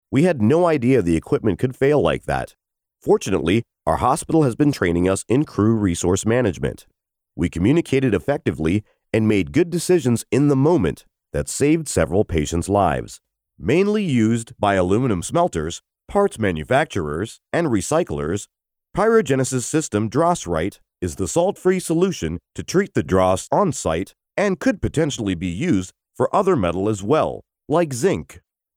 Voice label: eLearning